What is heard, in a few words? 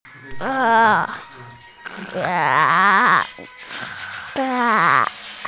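"ke3", Mandarin Chinese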